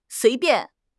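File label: "sui2 bian4", Mandarin Chinese; HSK4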